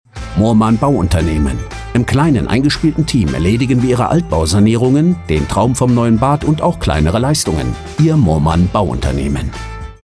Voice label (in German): Funkspot; Moormann Bauunternehmen GmbH Co KG